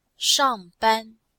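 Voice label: "shang4ban1", Chinese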